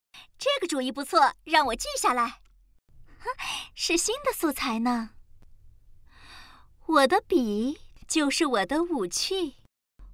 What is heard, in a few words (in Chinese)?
游戏角色年轻女